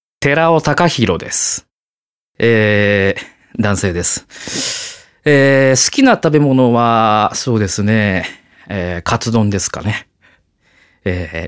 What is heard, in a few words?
freetalk, male voice